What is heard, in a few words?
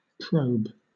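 "probe", British English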